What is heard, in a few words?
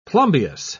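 "plumbeous", British English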